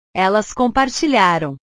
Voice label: "elas compartilharam", portugues; portugues/verbos

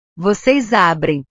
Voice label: "vocês abrem", portugues; Ustedes abren; verbos

